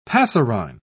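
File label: "passerine", British English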